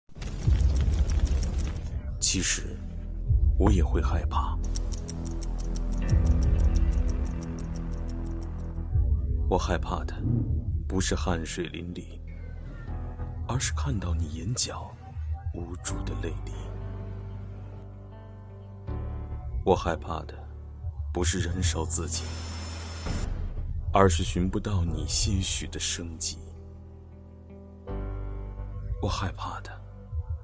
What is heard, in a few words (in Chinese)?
男 消防安全宣传; 云南